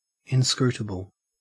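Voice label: "inscrutable", Australian English; pronunciations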